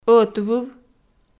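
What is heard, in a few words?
"ӧтвыв", Komi, female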